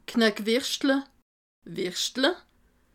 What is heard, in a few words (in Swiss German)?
"knack", alsace